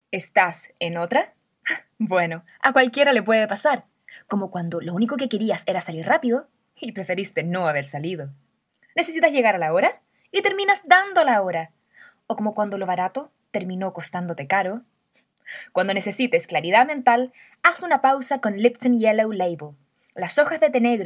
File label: Spanish LATAM